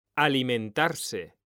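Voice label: "alimentarse", Spanish, male; mekanta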